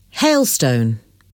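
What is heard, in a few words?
"hailstone", English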